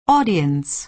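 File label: "audience", American English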